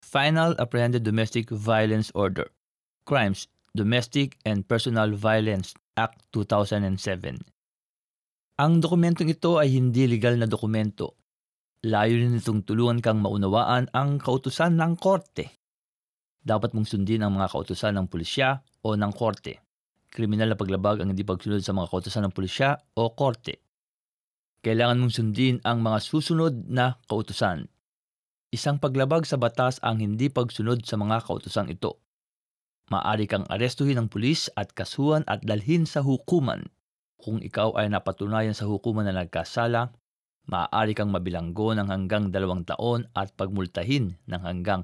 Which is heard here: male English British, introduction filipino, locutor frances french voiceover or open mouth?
introduction filipino